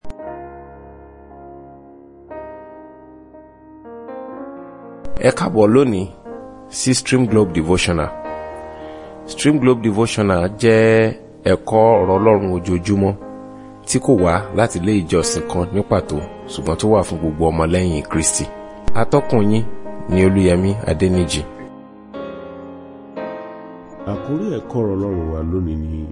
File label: Devotional Audio Yoruba